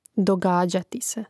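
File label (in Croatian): "događati se", Hrvatski; mreznik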